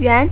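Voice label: "YUAN", Chinese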